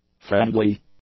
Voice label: "friendly", English; adjectives